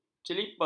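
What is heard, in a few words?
"celipa", Malay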